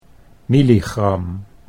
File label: "milligram", Dutch